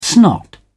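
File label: "snot", British English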